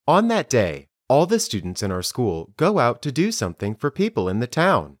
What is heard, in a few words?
Dictation